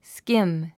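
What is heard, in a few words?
"skim", English word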